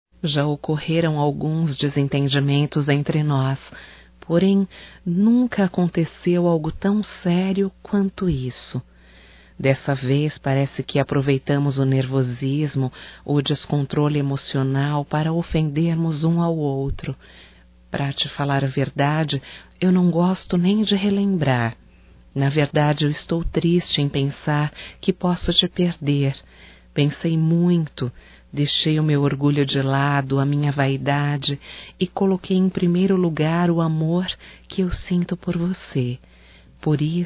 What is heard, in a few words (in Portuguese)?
RECONCILIAÇÃO (F); Românticas